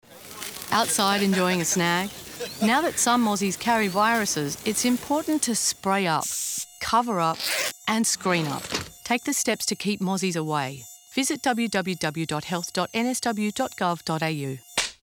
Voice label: radio; outdoors; mosquito-borne